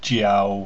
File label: "jiao", pinyin sounds; Mandarin Chinese Pronunciation